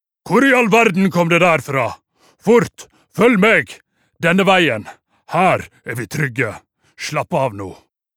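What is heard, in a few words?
dub, Norwegian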